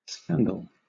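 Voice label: "scandal", British English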